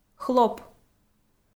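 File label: "хлоп", Komi, female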